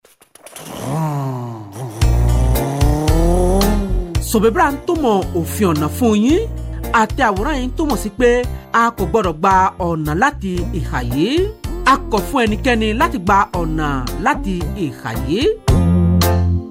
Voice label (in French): CODE DE LA ROUTE YORUBA